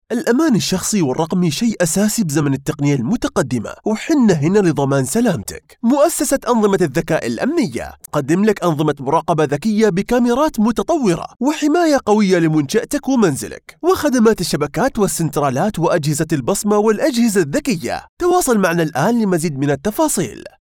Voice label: Saudi